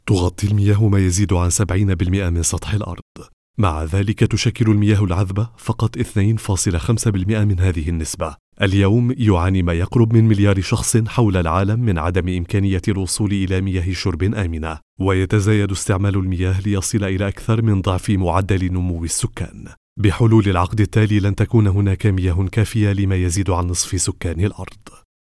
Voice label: Documentary style voice over and dubbing

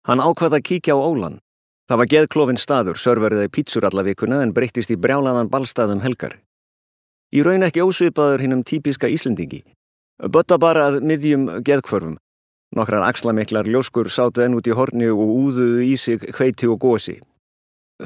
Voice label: Audiobook Icelandic

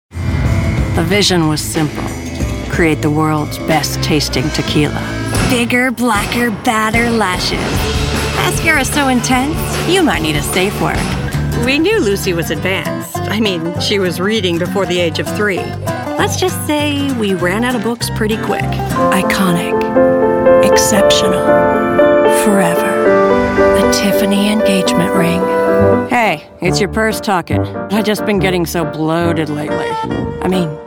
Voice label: Commercial

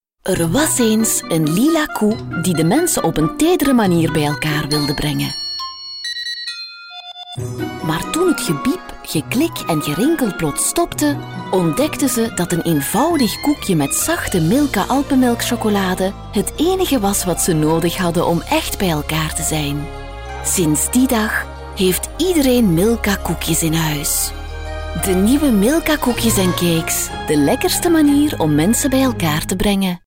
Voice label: TVC enchanting storytelling; Milka

